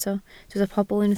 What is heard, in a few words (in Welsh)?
"pobl", Welsh